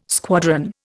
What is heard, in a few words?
"squadron", Indian